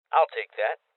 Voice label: Voices Loot Collect Male Young; rebel cops 2019